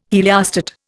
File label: "heliostat", Indian